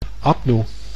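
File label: "apnoe", Dutch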